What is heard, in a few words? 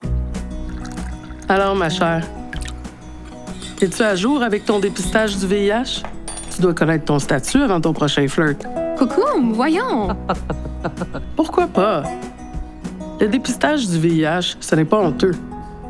FRENCH RADIO SPOT; Kokums Kitchen